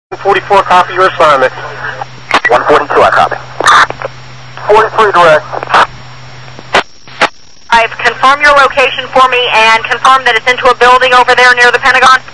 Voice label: police wireless voice